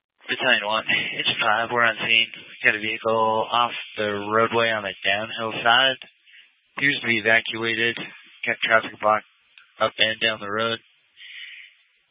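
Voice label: Incident Tactical, scanner audio recording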